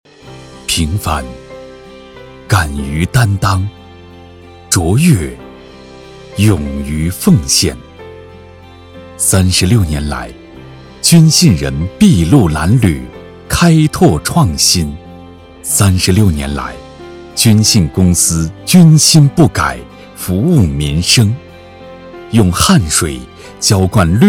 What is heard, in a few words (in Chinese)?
男 专题 大气 沉稳 党建